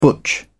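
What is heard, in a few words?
"butch", English, British English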